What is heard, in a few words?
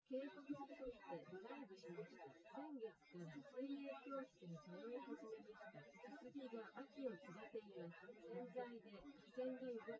female; synthconv 130ms 1m sim; SiSEC08; SiSEC underdetermined